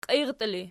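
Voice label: "qiirtii" ergative, Archi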